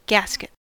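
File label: "gasket", American English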